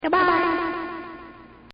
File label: Weird Voice Says Goodbye; Greetings And Goodbyes